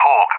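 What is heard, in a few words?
"Hawk", English, UK; AirDefence; DCS; Allied Flight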